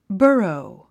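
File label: "burrow", English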